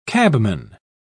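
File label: "cabman", British English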